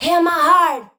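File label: vocal female, Dance Vocals, spoken words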